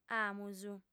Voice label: "amus", audiofem

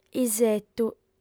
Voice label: "isetu", audiofem

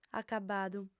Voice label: "acabbadu", audiofem

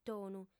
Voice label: "tonu", audiofem

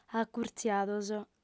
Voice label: "acurtziados", audiofem

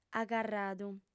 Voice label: "agarradu", audiofem

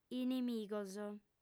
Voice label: "inimigos", audiofem

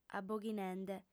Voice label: "aboghinende", audiofem

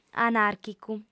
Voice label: "anarchicu", audiofem

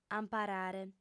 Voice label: "amparare", audiofem

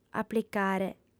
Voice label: "aplicare", audiofem

